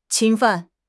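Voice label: "侵犯", Mandarin Chinese; HSK6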